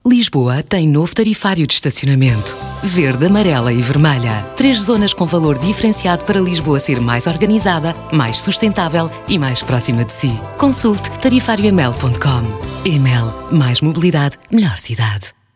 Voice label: SPOT RADIO INFO PT; EMEL